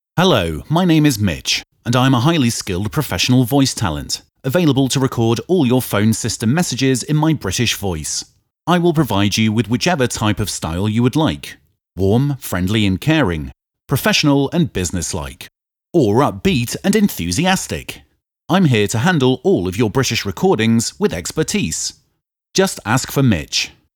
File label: British Male